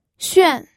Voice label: "xuan4", pinyin